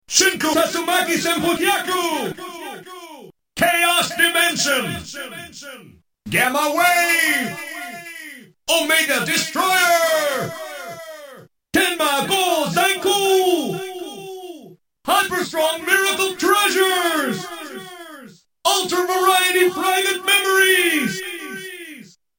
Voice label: faq announcer